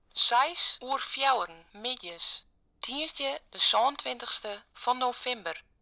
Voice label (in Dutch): Fries vrouw